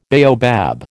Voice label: "baobab", American